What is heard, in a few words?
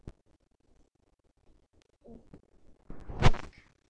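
"lick", spoken word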